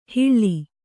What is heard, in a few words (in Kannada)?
"ಹಿಳ್ಳಿ", Kannada